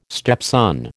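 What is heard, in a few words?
"stepson", American English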